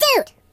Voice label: "Ouch", Surfer; hedgewars